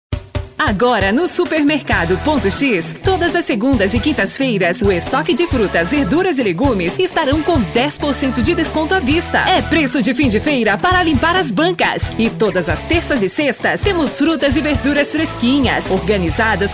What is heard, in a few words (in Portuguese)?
locutora